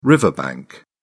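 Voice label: "riverbank", British English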